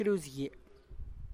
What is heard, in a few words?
flower cross for funerals, Tlacochahuaya Zapotec; kruzy gie